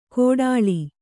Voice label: "ಘೋಟಾಳಿ", Kannada

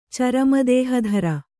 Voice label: "ಚರಮದೇಹಧರ", Kannada

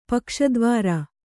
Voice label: "ಪಕ್ಷದ್ವಾರ", Kannada

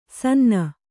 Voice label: "ಸಿನ್ನೆ", Kannada

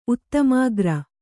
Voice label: "ಉತ್ತಮಾಗ್ರ", Kannada

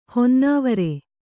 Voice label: "ಹೊನ್ನವರಿ", Kannada

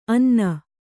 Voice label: "ಅನ್ನ", kannada

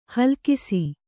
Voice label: "ಹೇಲುಕಸ", Kannada

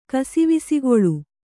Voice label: "ಕಸಿವಿಸಿಗೊಳ್ಳು", Kannada

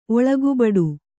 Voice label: "ಒಳಗುಬಡು", Kannada

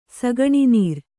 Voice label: "ಸಗಣಿನೀರು", Kannada

